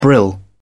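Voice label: "brill", British English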